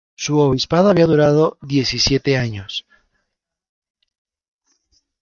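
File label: "obispado", Spanish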